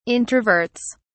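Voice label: "introverts", English, uk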